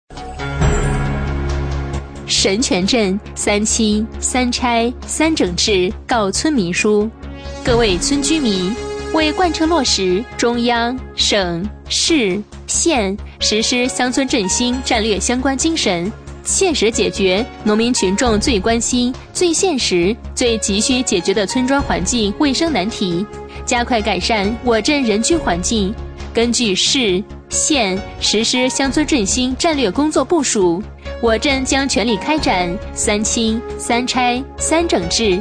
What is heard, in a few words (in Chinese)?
女 宣传片; 神泉镇人民政府; 正式稳重